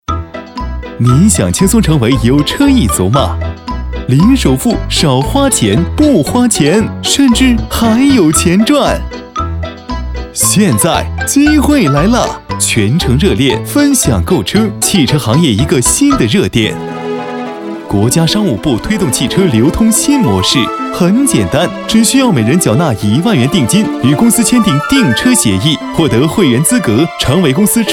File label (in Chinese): 男; 汽车零首付; 飞碟说